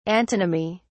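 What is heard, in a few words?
"antonymy", British English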